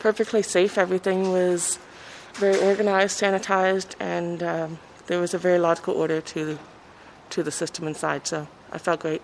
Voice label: Vox pop vote